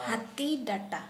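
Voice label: Santali female elephant tusk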